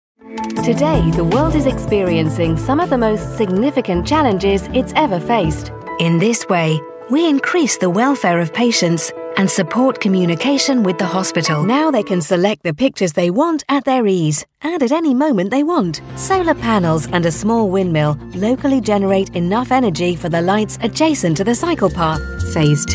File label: explainers